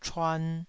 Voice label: "chuan2", taiwanese